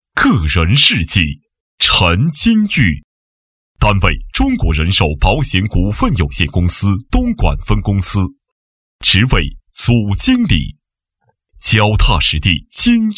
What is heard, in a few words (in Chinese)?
男 颁奖; 保险颁奖